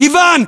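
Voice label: "ivan", battlechatter, Russian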